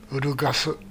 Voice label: "urugasu", minamiyamagata